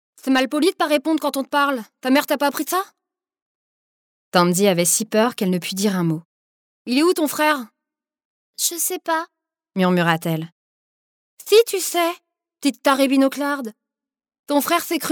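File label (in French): lecture voix enfants; voix-off; Jojo Moyes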